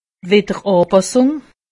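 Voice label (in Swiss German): "widderanpassung", Alsatian; medecine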